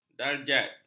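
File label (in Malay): "darjat", Malay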